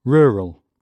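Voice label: "rural", English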